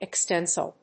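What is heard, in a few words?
"Extensile", English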